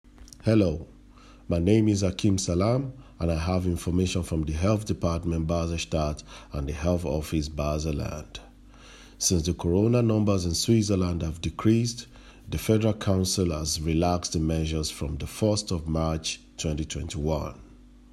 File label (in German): englisch; kantonsarztlicher dienst; medizinische dienste; informationen in verschiedenen sprachen